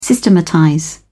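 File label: "systematize", British English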